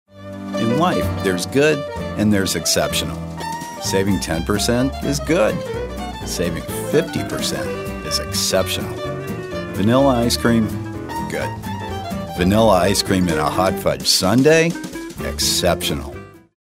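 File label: folksy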